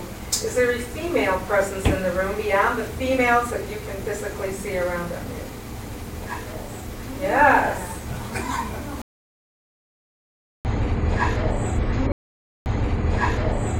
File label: Female, Yes; haunted romeo; evidence